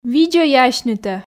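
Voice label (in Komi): видзӧй асьнытӧ, Komi, female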